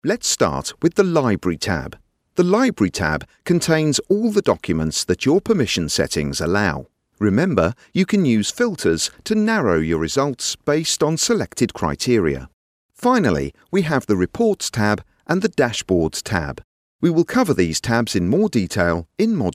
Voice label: e-learning sample